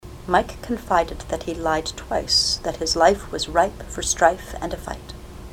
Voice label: mike lied twice, ontario